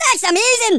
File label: "AMAZING", Angry Scots; Worms Armageddon